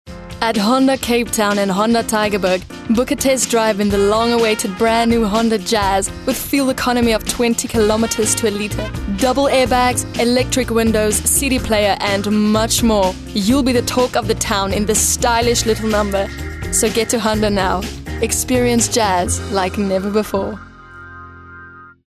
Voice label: Hard Sell English

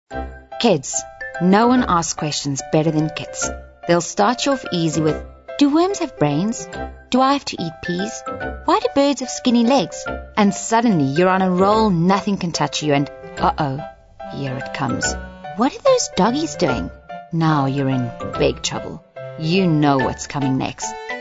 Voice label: Natural

